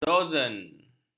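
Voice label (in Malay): "dozen", Malay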